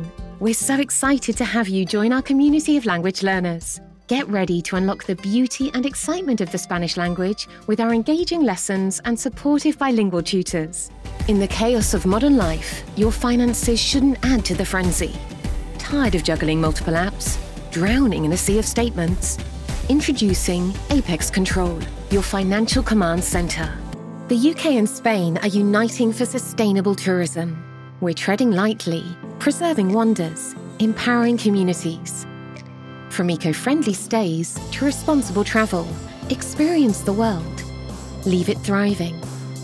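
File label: Corporate, Female Voice Over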